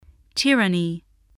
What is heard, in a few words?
"tyranny", English spelling voice